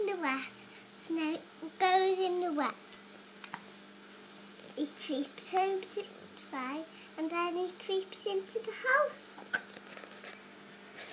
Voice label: small girl snail story